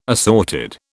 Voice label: "assorted", British

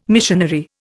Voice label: "missionary", Indian

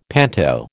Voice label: "panto", American

